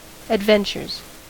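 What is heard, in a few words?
"adventures", US English; pronunciations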